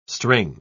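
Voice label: "string", English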